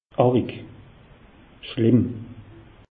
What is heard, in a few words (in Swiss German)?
"arig", alsace; meteo